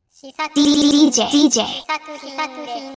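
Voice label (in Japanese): DJの言い方（男性）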